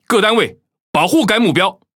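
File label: Chinese (Taiwan) Speech Quick Commands Ally Ship; World Of Warships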